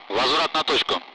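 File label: Speech, Russian; Allied Flight; DCS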